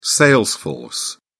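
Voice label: "sales force", British English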